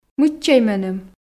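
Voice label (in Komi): мыччӧй меным, Komi, female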